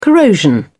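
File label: "corrosion", British English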